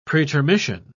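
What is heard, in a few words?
"pretermission", British English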